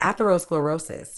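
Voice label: "atherosclerosis", British English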